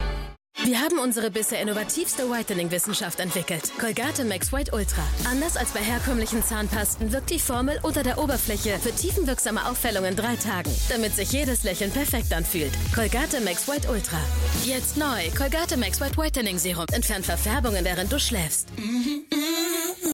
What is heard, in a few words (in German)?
TV Spot; Colgate Maxwhite Ultra